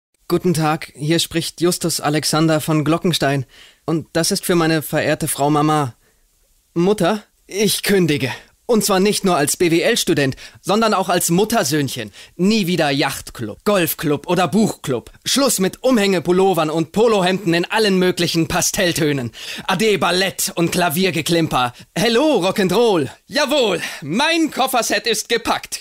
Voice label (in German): Werbung; Radiozentrale